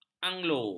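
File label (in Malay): "anglo", Malay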